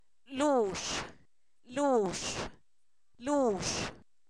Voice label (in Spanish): barba, Zapoteco, san miguel albarradas; cuerpo; INALI